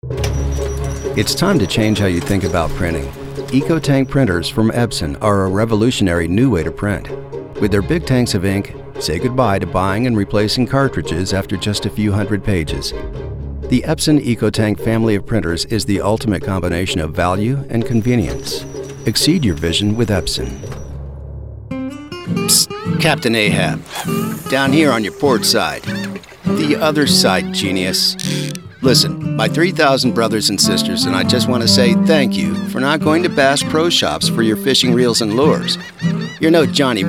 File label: Commercial